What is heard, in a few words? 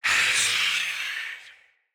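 See Player Knight Male, Battle; Barks; Mendicant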